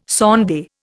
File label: "sonde", Indian